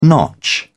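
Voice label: "notch", British English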